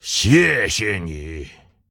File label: Thanks, zh